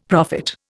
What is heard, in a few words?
"prophet", Indian; Dictionary